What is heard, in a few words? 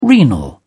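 "renal", English, British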